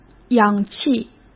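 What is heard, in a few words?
"氧气" Mandarin Chinese; chinese flashcards; hsk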